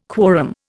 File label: "quorum", Indian